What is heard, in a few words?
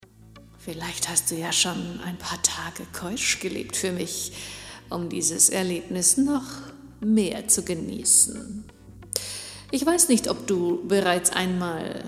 Cum Eating Instruction, dominante Hörstück